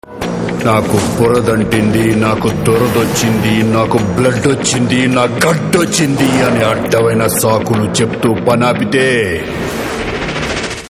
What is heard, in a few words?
Dialogue Ringtone; Akhanda Trailer; Srikanth